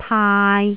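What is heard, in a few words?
"taai3", Cantonese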